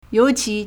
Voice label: "you2 qi2", Chinese

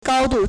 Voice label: "gao1 du4", Chinese

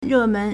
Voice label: "re4 men2", Chinese

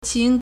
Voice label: "qin2", Chinese

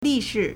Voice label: "li4shi4", Chinese

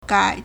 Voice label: "gai3", Chinese

